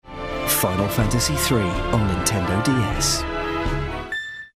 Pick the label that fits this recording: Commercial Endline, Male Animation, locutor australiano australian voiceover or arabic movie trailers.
Commercial Endline